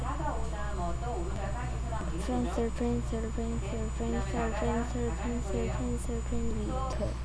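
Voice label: "pencil", spoken word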